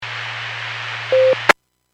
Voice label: Skywarn 146.67; Stevens Point